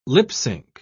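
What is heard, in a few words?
"lip-sync", British English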